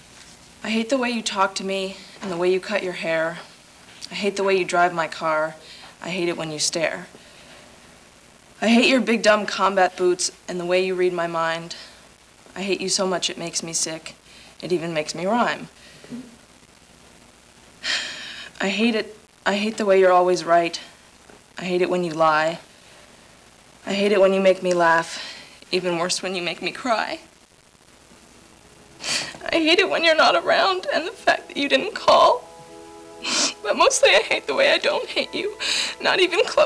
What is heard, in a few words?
poem; julia stiles